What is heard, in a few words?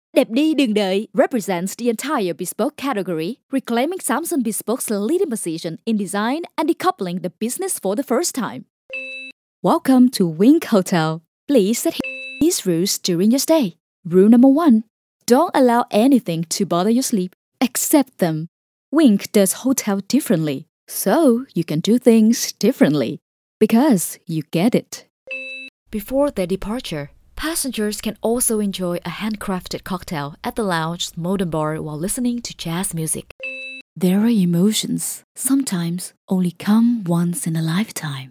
english; Bilingual Voice Artist